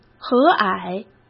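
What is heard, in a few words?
"和蔼", Mandarin Chinese; hsk; chinese flashcards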